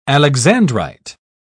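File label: "alexandrite", British English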